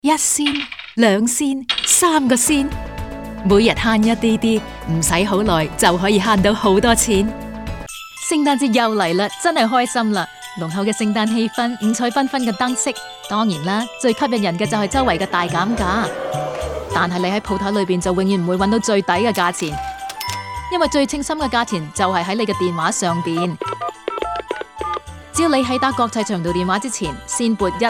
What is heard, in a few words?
Sung Cantonese